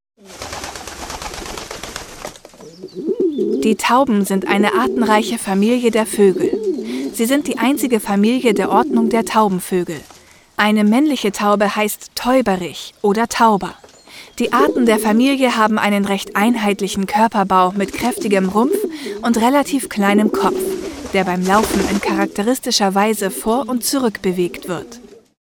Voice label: Voice-Over